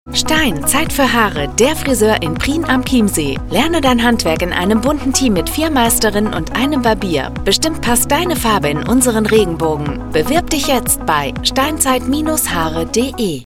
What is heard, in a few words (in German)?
Funkspot, Friseure; Stein-Zeit-fuer-Haare